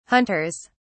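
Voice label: "hunters", English, British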